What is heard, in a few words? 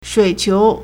"shui3qiu2", Chinese